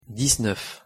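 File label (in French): "dix-neuf" French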